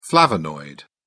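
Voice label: "flavonoid", British English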